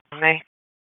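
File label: Raleigh Police Southeast District Dispatch, p25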